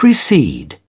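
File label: "precede", British English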